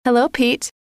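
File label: "hello", female; trainer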